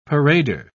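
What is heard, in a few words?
"parader", British English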